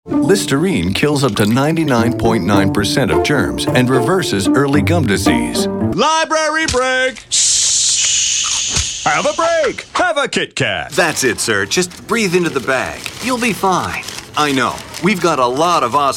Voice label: commercial; TaDa

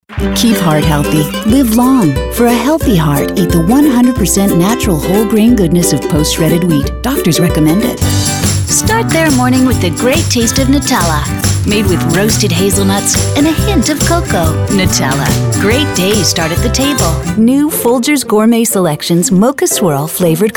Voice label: Commercial; TaDa

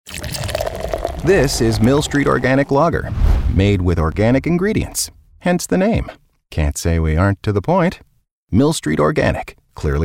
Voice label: commercial; TaDa

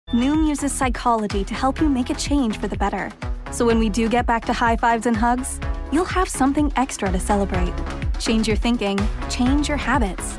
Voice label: commercial; TaDa

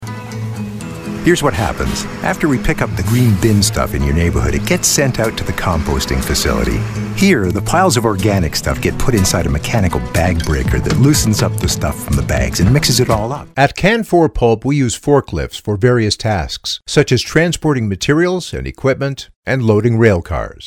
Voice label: narration; TaDa

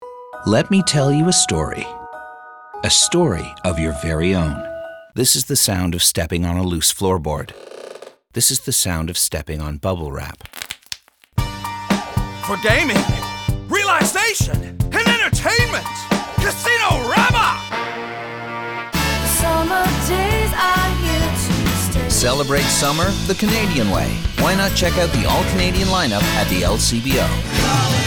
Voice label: Commercial

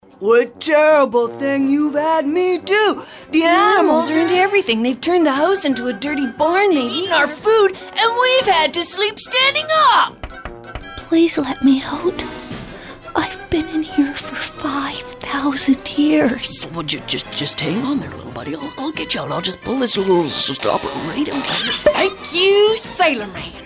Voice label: Character F; TaDa

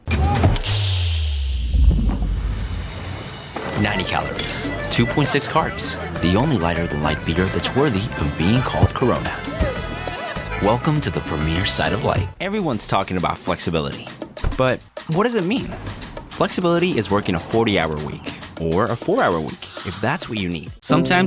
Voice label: commercial

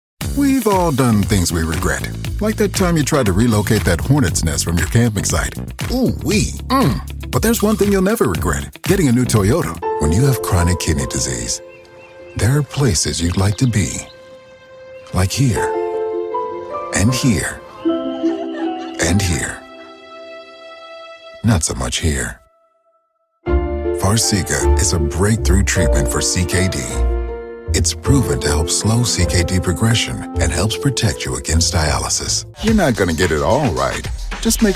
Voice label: Commercial; TaDa

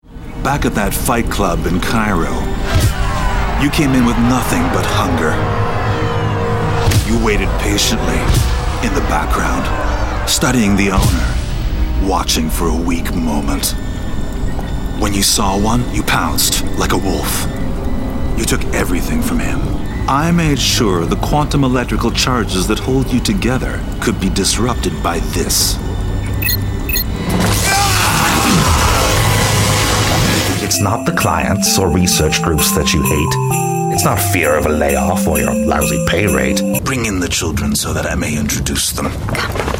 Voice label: character; TaDa

